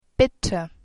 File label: please, german